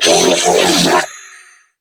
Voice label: Death Grineer Female; en; New War; Narmer Grineer